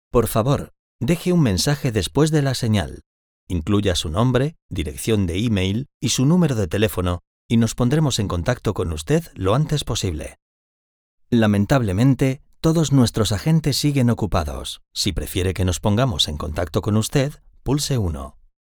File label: male, Spanish Castilian, IVR